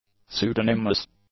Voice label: "pseudonymous", english; adjectives

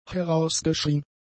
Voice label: "herausgeschrien", German; adjectives

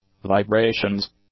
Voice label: "vibrations", English; nouns

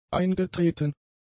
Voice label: "eingetreten", German; adjectives

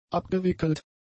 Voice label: "abgewickelt", German; adjectives

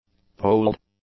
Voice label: "poled", english; adjectives

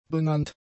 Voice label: "benannt", German; adjectives

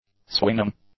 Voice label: "swinging", english; nouns

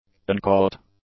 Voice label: "uncaught", English; adjectives

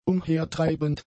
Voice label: "umhertreibend", German; adjectives

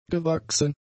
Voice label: "gewachsen", German; adjectives

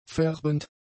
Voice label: "färbend", German; adjectives

